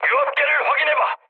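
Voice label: Korean damaged oil mood med, speech; War Thunder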